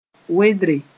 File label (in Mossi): "wedre", moore